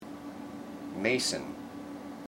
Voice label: "mason", IPA

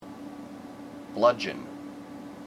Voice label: "bludgeon", IPA

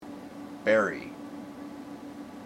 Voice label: "berry", IPA

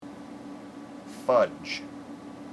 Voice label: "fudge", IPA

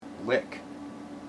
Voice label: "lick", IPA

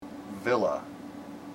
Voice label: "villa", IPA

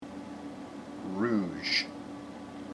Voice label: "rouge", IPA

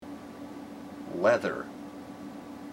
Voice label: "leather", IPA

